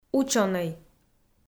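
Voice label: "учёнӧй", Komi, female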